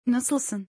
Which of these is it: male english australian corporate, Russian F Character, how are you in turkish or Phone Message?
how are you in turkish